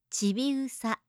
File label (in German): "chibiusa", japanische maedchennamen